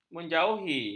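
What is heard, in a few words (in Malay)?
"menjauhi", Malay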